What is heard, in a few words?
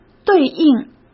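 "对应", Mandarin Chinese; chinese-flashcards; hsk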